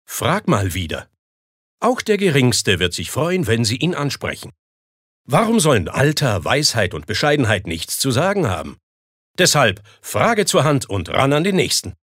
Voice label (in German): Werbung Freundlich